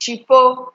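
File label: "cheapo", Nigerian Pidgin, female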